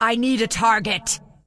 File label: Undead Female error generic no target; Scourge